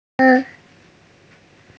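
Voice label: "weng1", Mandarin Chinese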